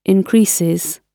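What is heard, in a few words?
"increases", British English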